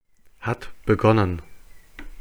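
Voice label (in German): "hat begonnen", German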